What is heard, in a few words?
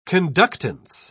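"conductance", British English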